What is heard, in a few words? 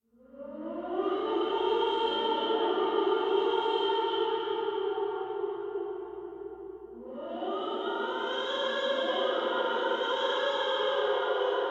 scaring voice; Inquisitor 2